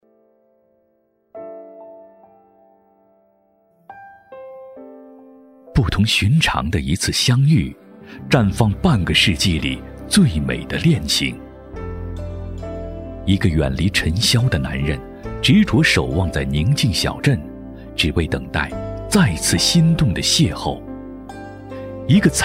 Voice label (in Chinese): 宣传片; 央视电视剧似水年华